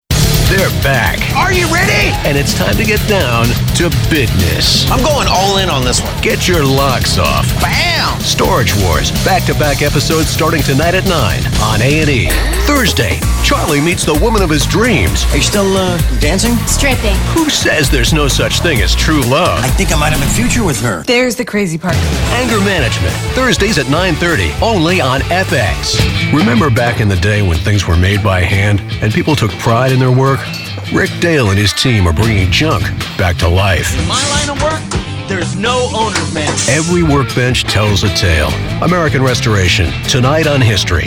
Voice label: Male Voice Over, Promo Trailer